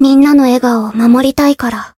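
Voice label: variable chant, Japanese; ruina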